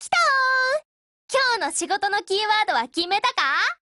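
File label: Japanese, Voice; GF Alarm; SIG556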